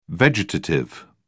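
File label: "vegetative", British English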